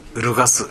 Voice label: "urugasu", minamiyamagata

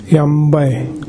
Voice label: "yanbae", minamiyamagata

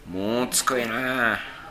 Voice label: "montukoe", minamiyamagata

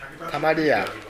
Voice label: "tamariya", minamiyamagata

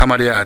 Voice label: "tamariya", minamiyamagata

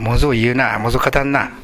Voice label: "mozo", minamiyamagata

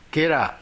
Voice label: "gera", minamiyamagata

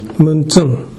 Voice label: "muntun", minamiyamagata

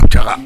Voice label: "jaga", minamiyamagata

